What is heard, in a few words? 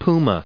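"Puma", us English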